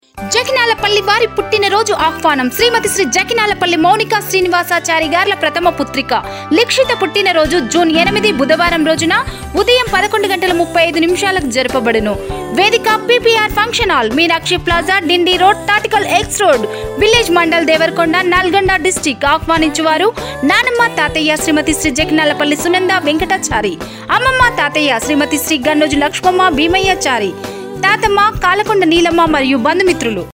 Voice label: voice ad Happy Birthday To u